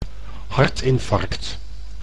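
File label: "hartinfarct", Dutch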